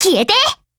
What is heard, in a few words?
SKILL, JP